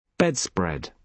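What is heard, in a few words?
"bedspread", British English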